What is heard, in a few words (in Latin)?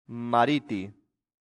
"mariti", latin; santillana